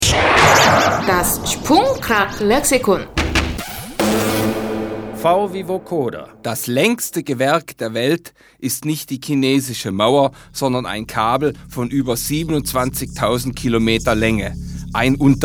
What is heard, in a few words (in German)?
Vocoder